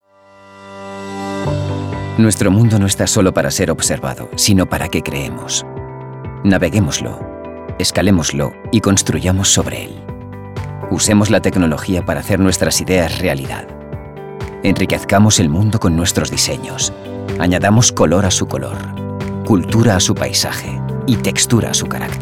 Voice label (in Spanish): Masculino